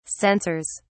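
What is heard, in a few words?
"sensors", British English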